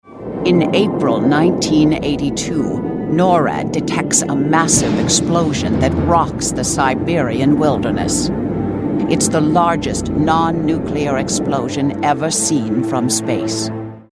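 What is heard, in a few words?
American narration drama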